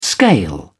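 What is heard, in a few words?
"scale", British English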